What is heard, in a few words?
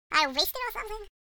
crazy; wormux